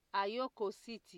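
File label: "ayokocity", Nigerian Pidgin, female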